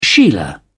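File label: "sheila", British English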